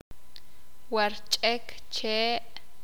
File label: "corteza", sakapulteko